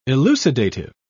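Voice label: "elucidative", British English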